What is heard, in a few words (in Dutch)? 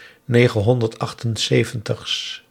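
"negenhonderdachtenzeventigs", Dutch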